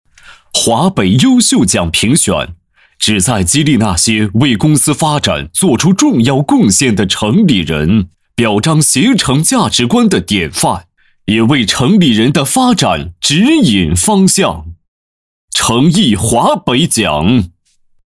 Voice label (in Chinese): 男 颁奖 大气激情, 年轻稳重 激情力度; 携程